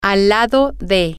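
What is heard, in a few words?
next to, Spanish, female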